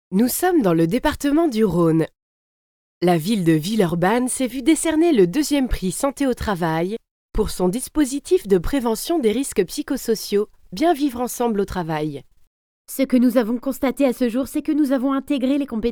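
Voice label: French, female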